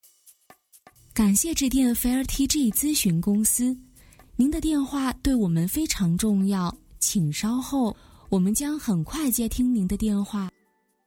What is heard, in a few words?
MANDARIN